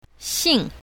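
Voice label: "xing4", pinyin, south, female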